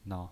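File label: "nao", pinyin voice, Mandarin Chinese, neutral tone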